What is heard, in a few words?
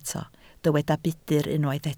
"budr", Welsh